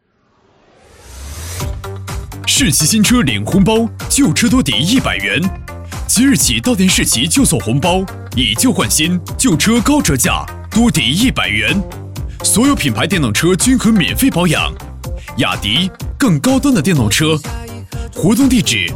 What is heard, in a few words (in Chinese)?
男 广告 电动车; 雅迪